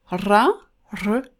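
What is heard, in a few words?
"rrra", Arabic letter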